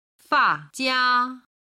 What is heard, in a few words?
"fa jia", Chinese